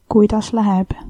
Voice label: how are you, estonian; Kuidas läheb?

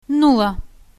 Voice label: zero, Bulgarian, numbers

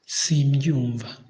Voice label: no understand, kinyarwanda

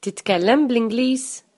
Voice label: do you speak English, Maltese

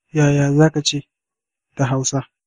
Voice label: how to say, hausa

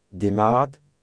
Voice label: hello, Breton; Demat

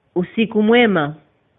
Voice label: good night swahili

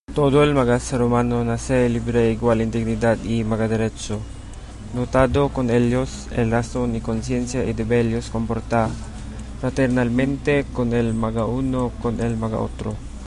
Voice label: chabacano

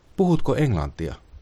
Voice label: do you speak english, finnish; Puhutko englantia?

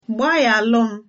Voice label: sorry, igbo

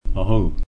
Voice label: thanks arapaho

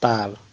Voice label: "fire", wolof

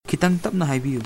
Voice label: slowly, manipuri

